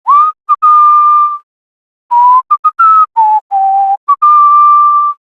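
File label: Ambient Male Sweeper, Dialog; Duviri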